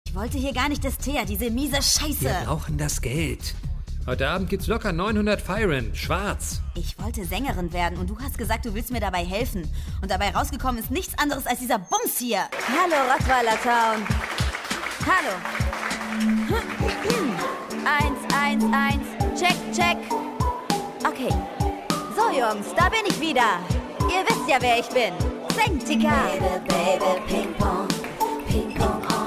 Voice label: hoerspiel normale stimmlage mit gesang; LOFT VOICES

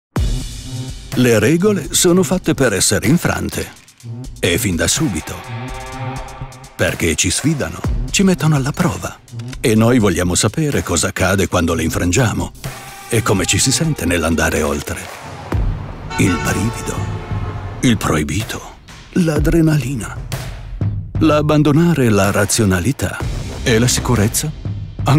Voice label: Imagefilm, Italian; Commercial (Werbung)

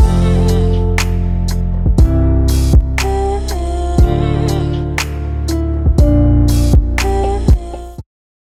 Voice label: Kino Werbung

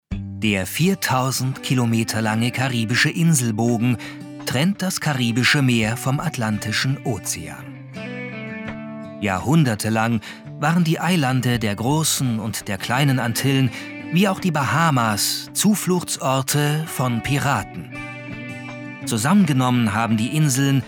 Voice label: Reisedoku; LOFT VOICES

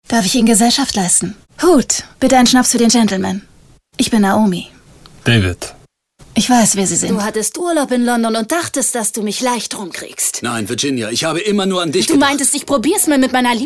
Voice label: Mix Synchron Hörbuch Gesang

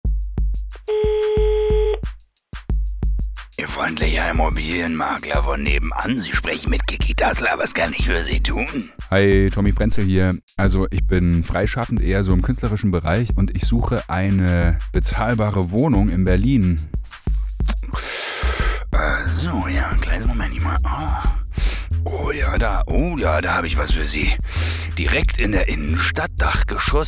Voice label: SPOT

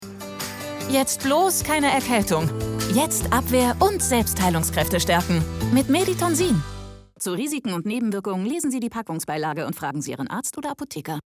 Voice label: Werbung TV; Meditonsin

